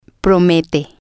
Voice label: "promete", spanish